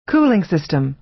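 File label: "cooling system", American English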